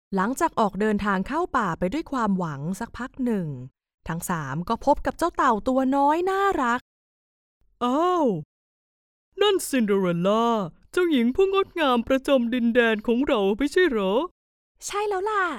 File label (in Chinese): 泰语女声, 品牌广告